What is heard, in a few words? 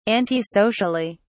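"antisocially", British English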